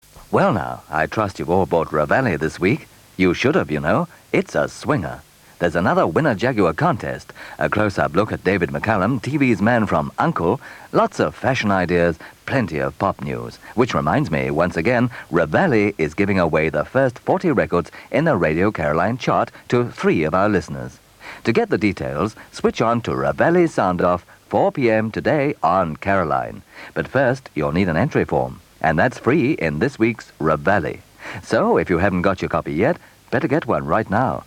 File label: advert; Reveille